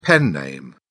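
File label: "pen name", English, British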